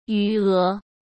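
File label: "余额", Mandarin Chinese; chinese flashcards; hsk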